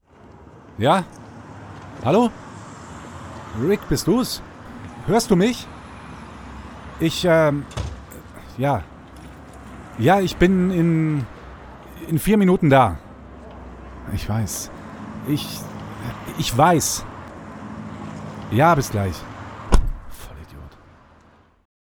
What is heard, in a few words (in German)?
Telefonat; der Hörverlag / hr2 Kultur